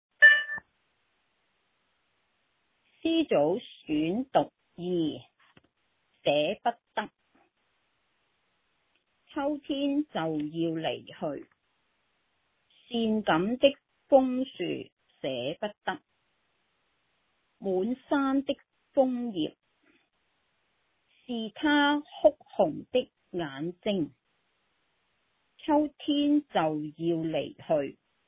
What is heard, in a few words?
Cantonese Read Aloud